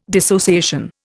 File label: "dissociation", Indian